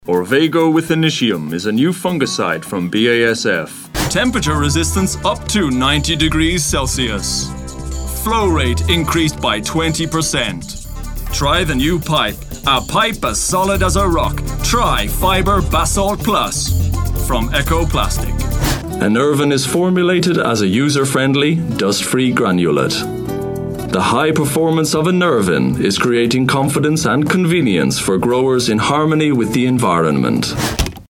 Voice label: english irish corporate videos